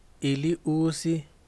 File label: "niño", Yaqui; Vocabulary